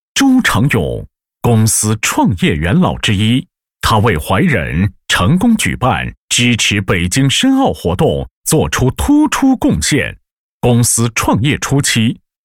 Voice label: 男 企业颁奖; 怀仁20周年杰出功勋奖获得者

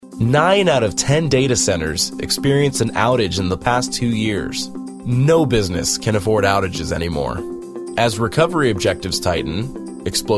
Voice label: 外籍英男,叙述

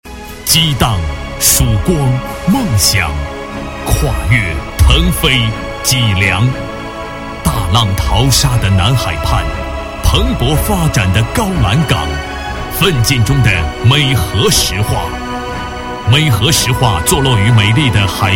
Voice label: 男40 大气专题, 国语男声配音

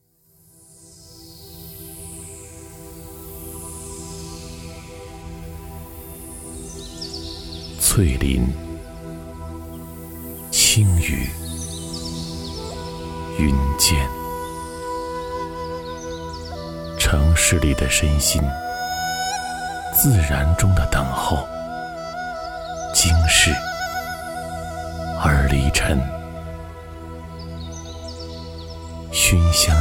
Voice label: 男, 片头片花; 云上漫足

